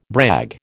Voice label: "brag", American

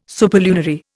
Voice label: "superlunary", Indian

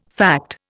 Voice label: "fact", Indian

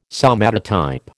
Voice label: "somatotype", American

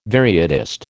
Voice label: "varietist", American

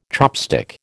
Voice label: "chopstick", American

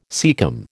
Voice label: "caecum", American

